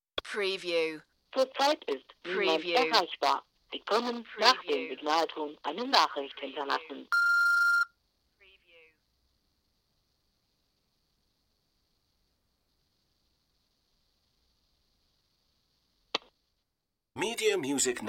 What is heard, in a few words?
german answering machine